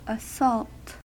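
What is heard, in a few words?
"assault", American English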